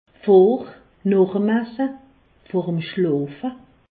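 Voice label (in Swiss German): "esse", Alsace, Haut Rhin; medecine; avant après le repas au coucher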